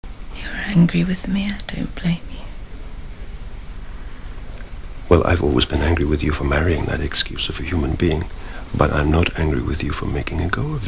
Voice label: angry, Mr Jordan, I really... you're angry with me... well, you can't...